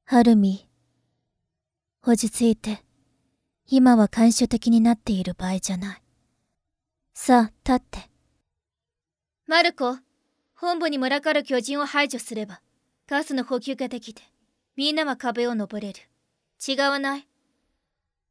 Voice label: 女 日语; 进击的巨人; 三笠

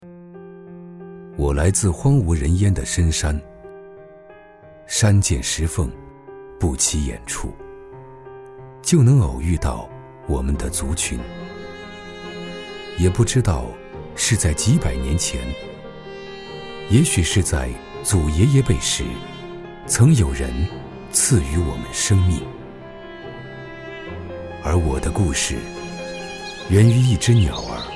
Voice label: 男 高端 自然 古法白茶; CCTV

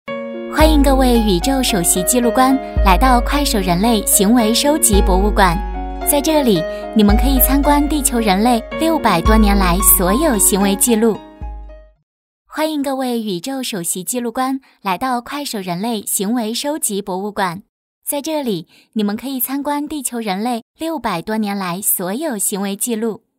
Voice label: 女 温柔女语音播报; 快手

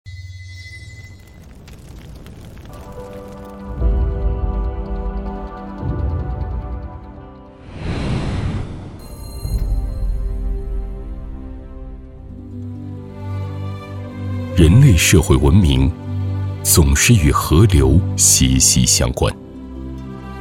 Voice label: 男, 大气宣传片

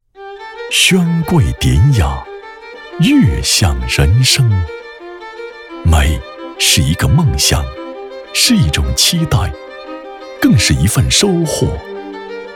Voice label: 男 企业宣传片 医美器械 柔和 讲述 沉稳

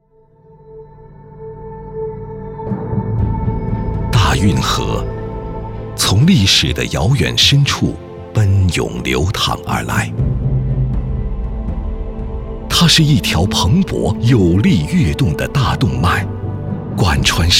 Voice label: 男 纪录片 品质纪录片

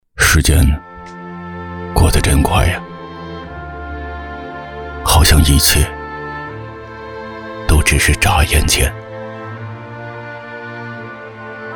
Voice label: 男 独白 回忆诉说

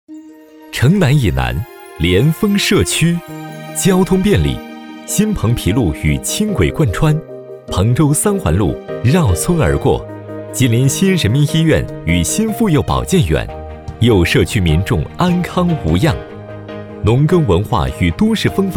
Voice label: 男 宣传片 社区; 连封社区

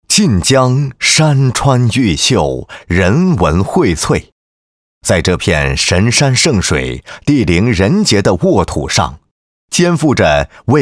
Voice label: 男 专题; 一带一路发展纪实

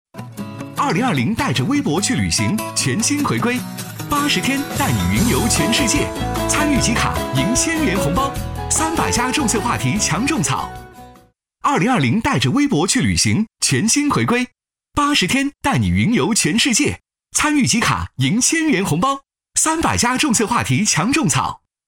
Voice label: 男 广告 带着微博去旅行 轻松 活泼

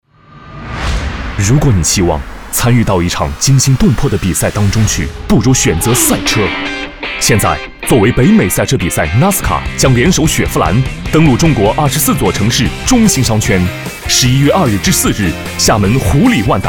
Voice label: 男 广告; 雪佛兰厦门站

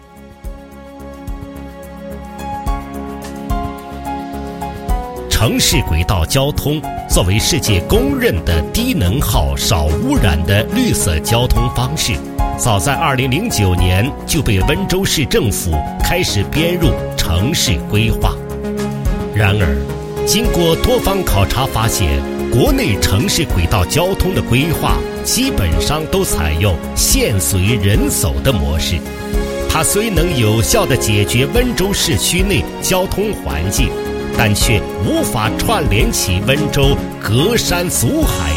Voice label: 男 轨道交通

